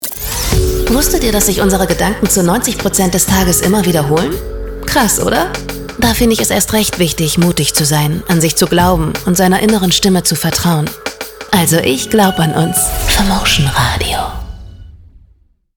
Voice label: Station Voice; Femotion Radio